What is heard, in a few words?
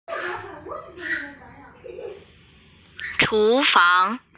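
"kitchen", Mandarin, hearing-impaired; chu2fang2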